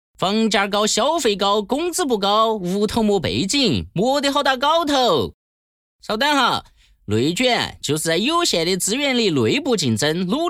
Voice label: 角色 重庆话; 长沙银行--守住钱袋子--创造幸福家

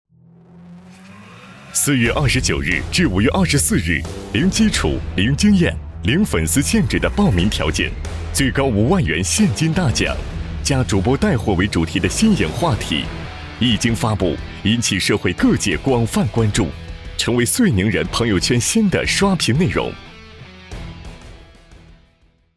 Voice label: 男 栏目介绍; 朋友圈

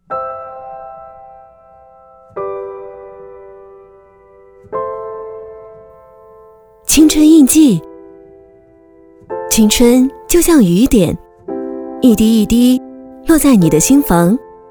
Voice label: 女 散文 青春印记

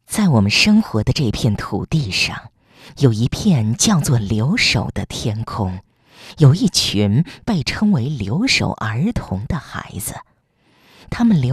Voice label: 女 公益专题 情感; 留守儿童; 公益宣传案例

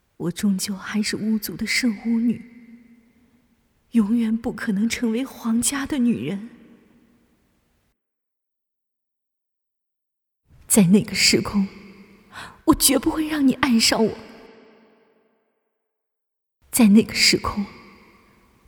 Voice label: 女 游戏角色 游戏 一人饰多角色

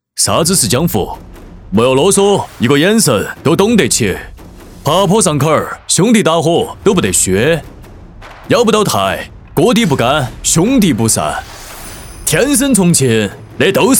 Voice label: 男 重庆话 啤酒; 啥子是江湖

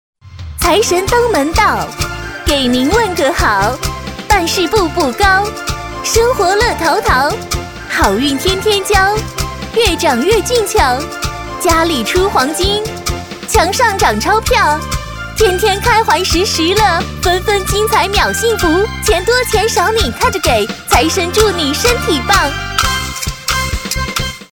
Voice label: 女 快板; 财神登门到

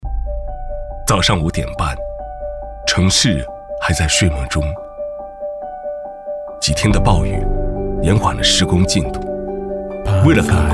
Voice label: 男 人物讲述 劳模人物讲述感

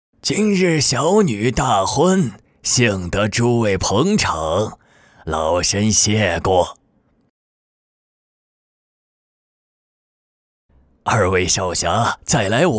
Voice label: 男 古风游戏npc; 囍鬼

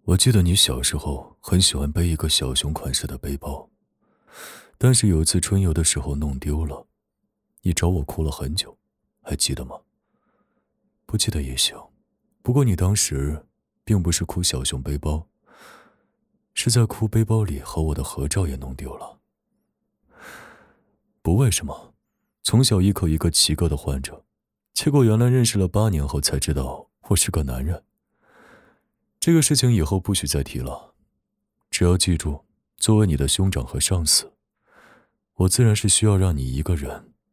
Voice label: 男 角色 霸总音

